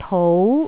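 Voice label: "tou2", Cantonese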